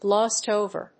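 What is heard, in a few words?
"glossed over", English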